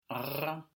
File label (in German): uvularer Trill